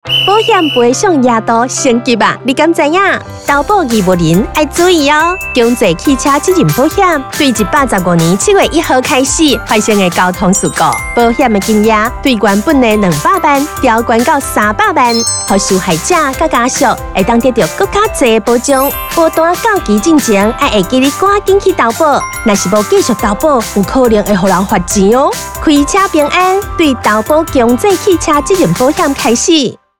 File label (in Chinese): 投保篇(台語)